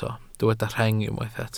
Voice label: "rheng", welsh

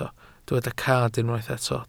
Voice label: "cad", welsh

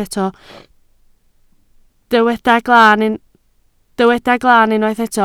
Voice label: "glaan", Welsh

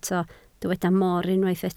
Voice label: "mor", Welsh

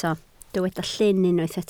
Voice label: "llyn", Welsh

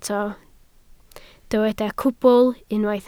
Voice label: "cwbl", Welsh

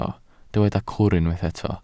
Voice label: "cwr", Welsh

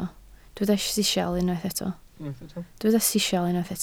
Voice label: "sisial", Welsh

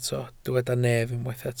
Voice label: "nef", Welsh

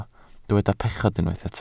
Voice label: "pechod", Welsh

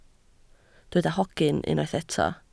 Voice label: "hogyn", Welsh

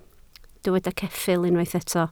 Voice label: "ceffyl", Welsh

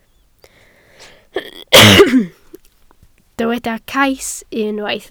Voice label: "cais", Welsh

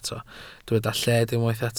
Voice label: "lled", welsh

